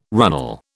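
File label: "runnel", British English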